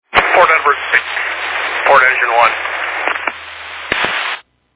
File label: Fire Dispatch